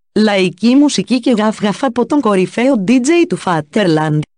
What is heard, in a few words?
female